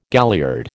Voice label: "galliard", American